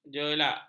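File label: "jelak", Malay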